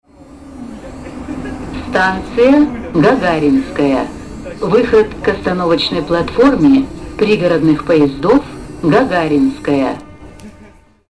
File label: female